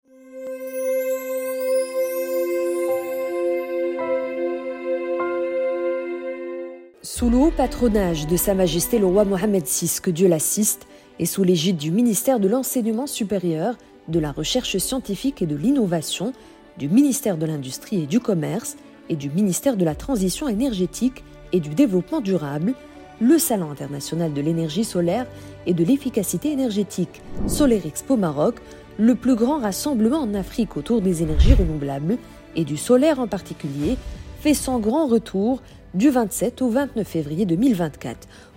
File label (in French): V Fr, Voix Off; Solaire Expo Maroc 2024